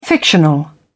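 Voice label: "fictional", English, British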